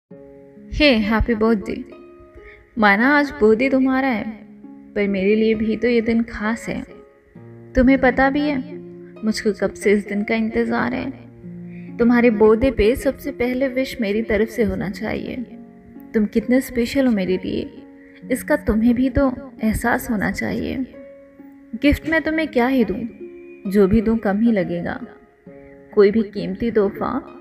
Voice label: Birthday Poetry; For Someone Special; Birthday Wish Status For Him